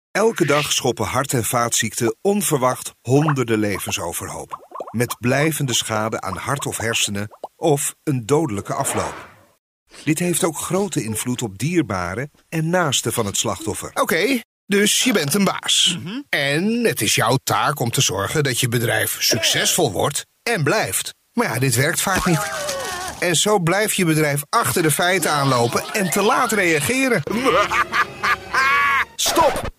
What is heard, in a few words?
dutch voice explainer animations, Dutch Accent Voice Over Actor, Natural